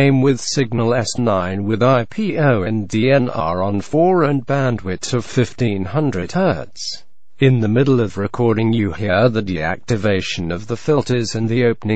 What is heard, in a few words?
FTdx3000d 40m WPX contest BW1500Hz; audio test FTdx3000d Extreme DX